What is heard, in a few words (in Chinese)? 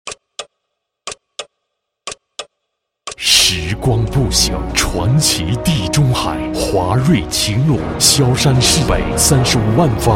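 广告地产奢华大气; 华瑞晴庐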